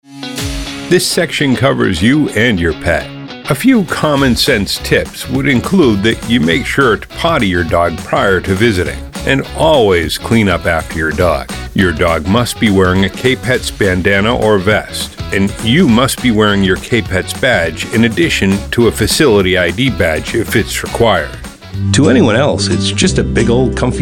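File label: English, male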